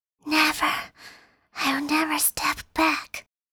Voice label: 角色英文游戏受伤温柔少女

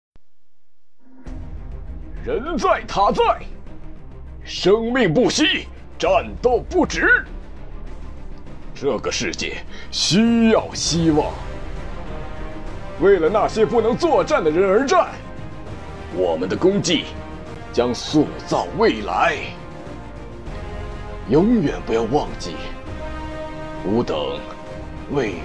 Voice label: 邪恶中年男音

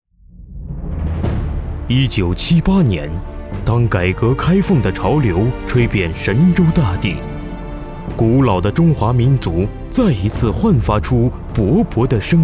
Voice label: 大气宣传片

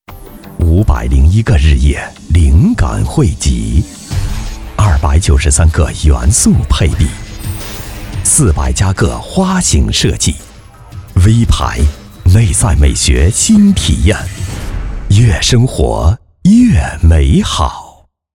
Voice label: 广告科技现代

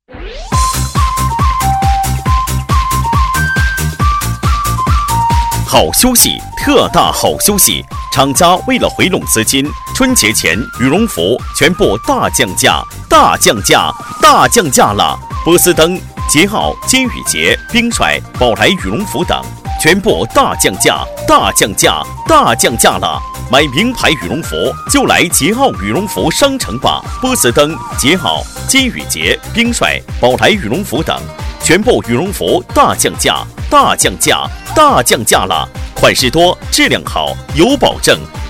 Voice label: 广告羽绒服大降价

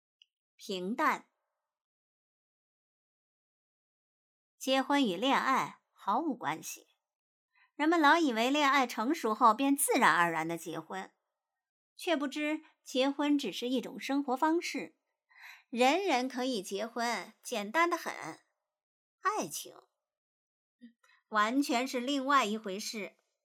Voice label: 平淡

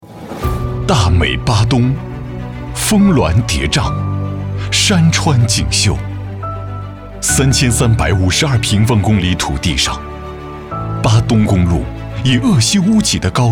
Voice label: 男 普通话 纪录片; 男国80巴东城市形象专题片

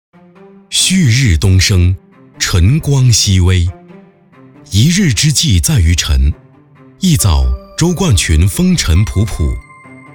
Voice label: 舌尖风格

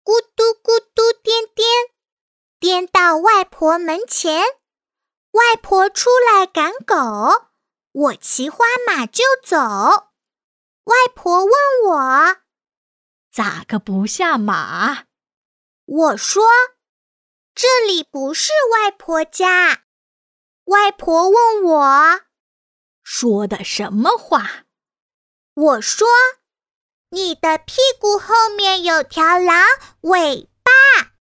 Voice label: 儿歌 童声中老年声; 狼外婆

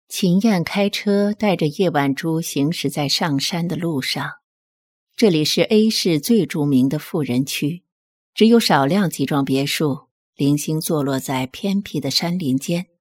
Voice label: 御姐音

